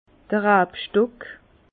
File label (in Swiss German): "rabstock", Bas Rhin; pied-de-vigne